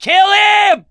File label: battle cry tank, mechanic, survivor, voice